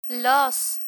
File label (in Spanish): "los", Spanish